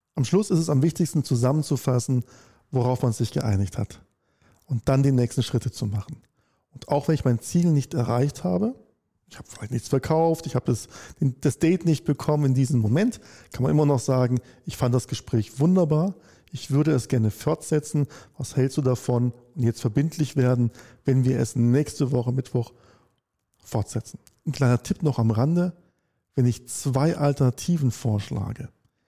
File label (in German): telefon